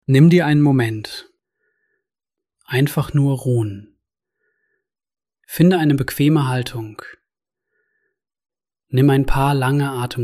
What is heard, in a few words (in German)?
atempause; achtsamkeit ueben